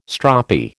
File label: "stroppy", American